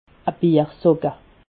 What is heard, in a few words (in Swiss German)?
"biersocke", alsace